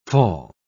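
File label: "fall", English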